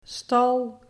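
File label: "stal", Dutch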